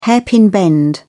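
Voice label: "hairpin bend", British English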